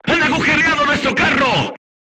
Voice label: voice message player tank hit, crew tanks spanish

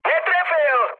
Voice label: bomb failure, speech cze, mood high; War Thunder

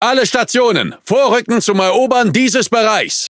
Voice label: Speech Quick Commands Enemy Point, DE; World Of Warships

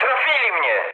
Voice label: aircraft damaged mood high, speech pol

